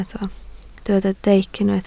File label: "deic", Welsh